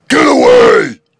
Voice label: demon voice get away for halloween use

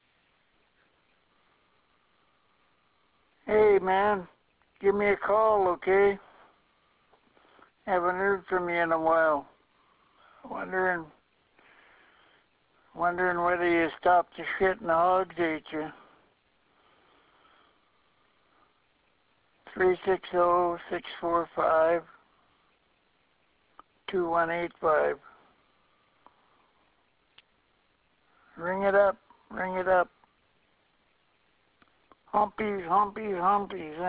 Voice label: weird voice message

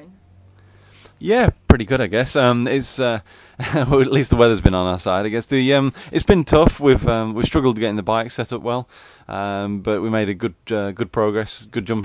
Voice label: British superbike interview; croft

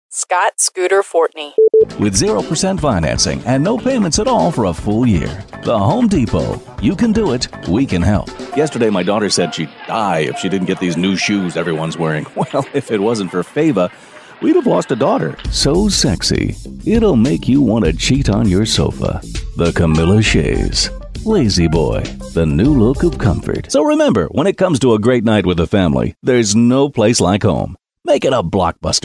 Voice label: commercial

